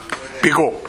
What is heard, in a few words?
"bego", minamiyamagata